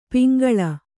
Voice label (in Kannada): "ಪಂಗೋಳಿ", kannada